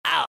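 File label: pain, Chinese; dota2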